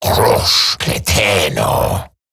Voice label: Attack Eximus Grineer Male; Battle Barks

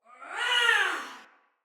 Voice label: Attack Heavy Soldier Female; Battle; Barks; Dialog; Sinecure Soldier Female B

